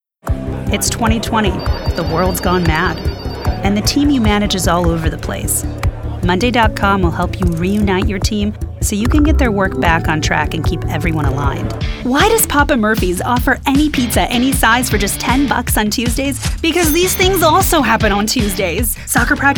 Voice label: Commercial